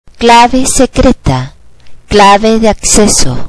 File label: "password", Spanish